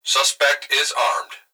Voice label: Emergency Human Male Voice Police Dispatch Suspect Is Armed; emergency effects